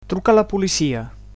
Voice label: "police", Catalan